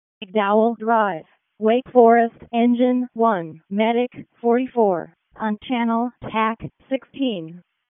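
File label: Fire Department Alert, p25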